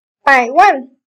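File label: "Triệu" Chinese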